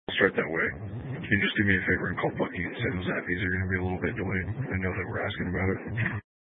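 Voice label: Sheriff Dispatch, scanner audio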